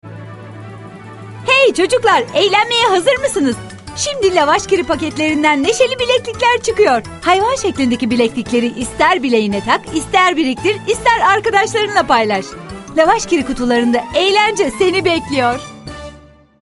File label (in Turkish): dinamik; seslendirme